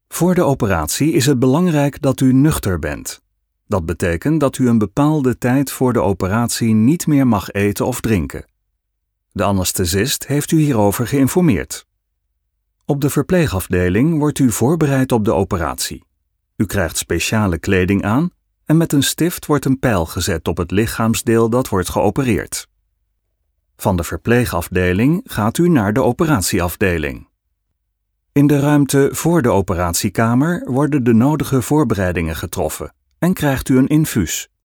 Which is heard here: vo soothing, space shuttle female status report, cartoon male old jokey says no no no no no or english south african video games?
vo soothing